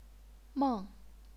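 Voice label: "meng", fourth tone, chinese pinyin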